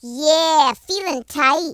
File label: collect, Gangsta; speech